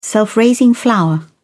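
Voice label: "self-raising flour", British English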